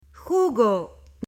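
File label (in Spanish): "jugo" Spanish, male; ALIMENTOS; AppLenguaje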